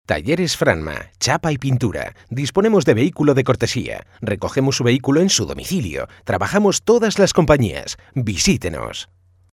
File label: locutor spanish voiceover